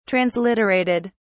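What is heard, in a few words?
"transliterated", British English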